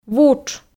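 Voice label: "вутш", Komi, female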